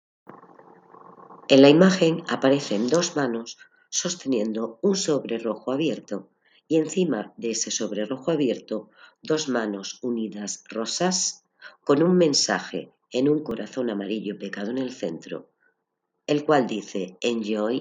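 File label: count to five in french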